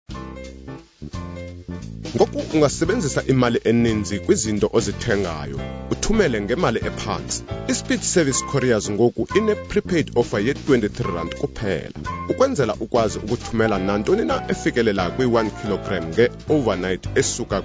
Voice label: Xhosa Hard Sell